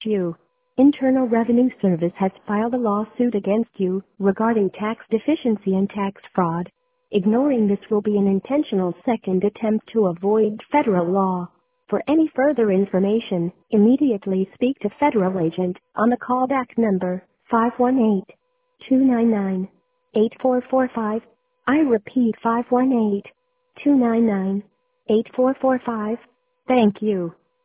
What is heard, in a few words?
voicemail